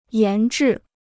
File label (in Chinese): "研制", Chinese